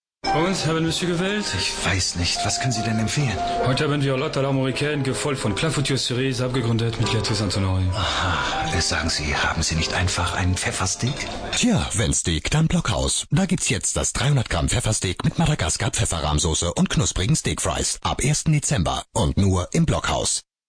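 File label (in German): werbung, Sprecher Französisch & Deutsch mit französischem Akzent; Block House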